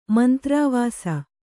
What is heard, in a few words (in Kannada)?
"ಮಂತ್ರಾವಾಸ", Kannada